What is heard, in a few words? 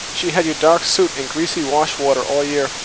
Noisy Speech